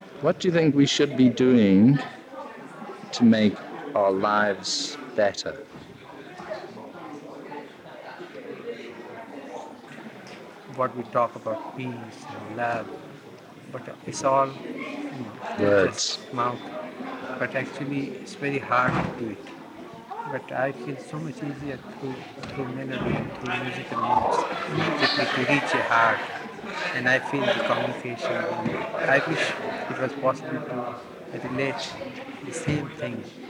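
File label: Indian Concert interview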